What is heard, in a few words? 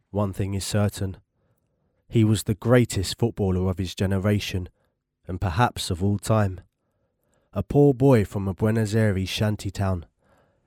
Narrative; Maradona